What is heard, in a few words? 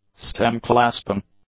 "stem-clasping", English; adjectives